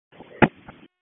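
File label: FIRE Operations, p25; FIRE OPS 2; wake p25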